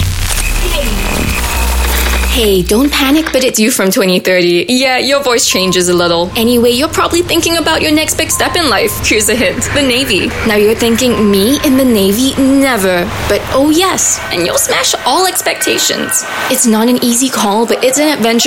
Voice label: Asian English Radio; Navy Women 2020 Campaign

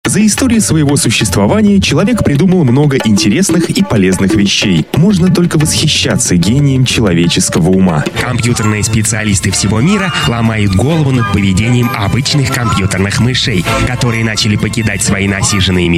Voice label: Russian Male Commercial

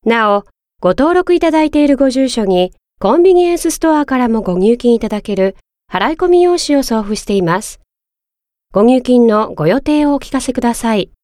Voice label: Japanese IVR, Female

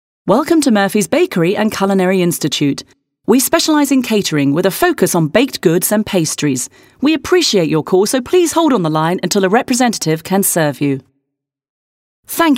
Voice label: UK English IVR Clean, Female

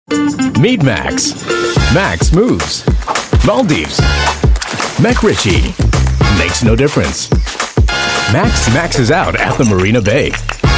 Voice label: Asian English unlock

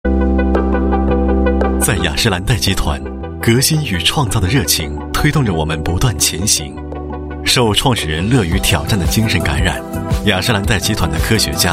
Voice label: Mandarin, China; Estee Lauder

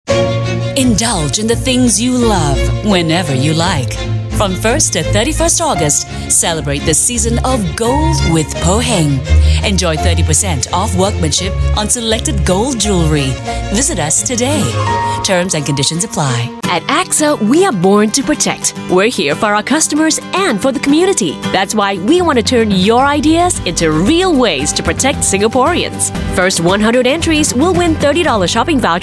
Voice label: Asian English Straight

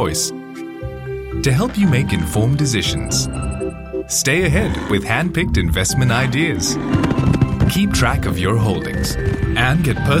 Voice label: Asian English one wealth; OCBC

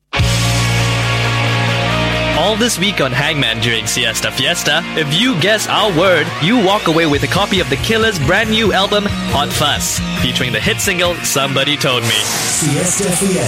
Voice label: Asian English Advert; WKRZ91.3

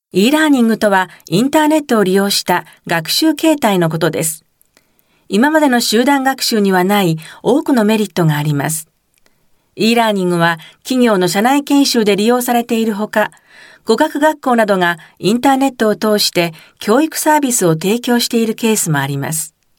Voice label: Japanese eLearning

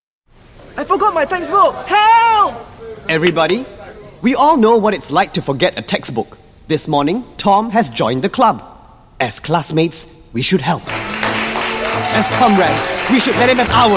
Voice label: English Singaporean Male; Superstar

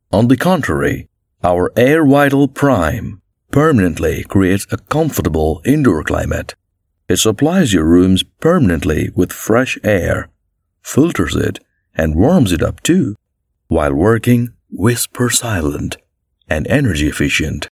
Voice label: English Indian Corporate Film

